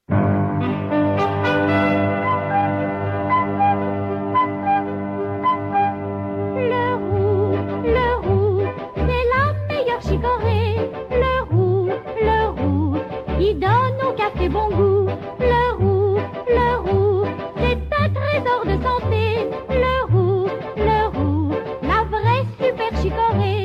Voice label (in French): radio pub spot